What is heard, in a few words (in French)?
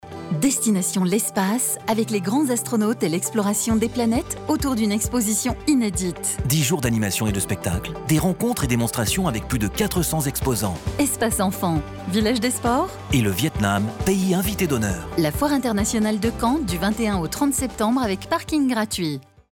SPOT RADIO